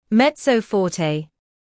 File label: mezzo forte